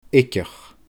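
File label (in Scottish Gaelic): "Eigeach", Scottish Gaelic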